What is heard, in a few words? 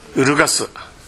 "urugasu", minamiyamagata